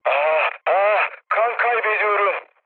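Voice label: Turkish damaged pilot, mood med, speech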